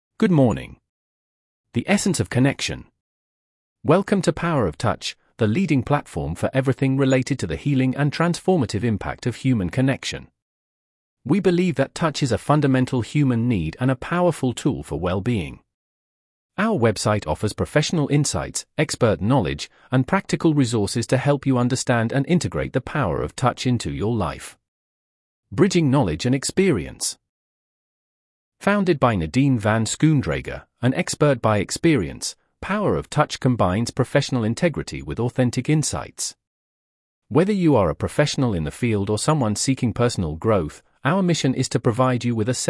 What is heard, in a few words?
text to voice